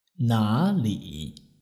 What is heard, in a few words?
"nali", Chinese